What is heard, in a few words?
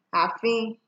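"afin", Nigerian Pidgin, female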